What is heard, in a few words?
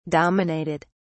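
"dominated", British English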